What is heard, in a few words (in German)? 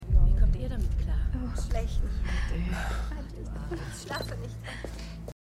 Frauenstimmen; MEMORIAL HOSPITAL - DIE TAGE NACH HURRIKAN KATRINA (DF 2022); Five Days at Memorial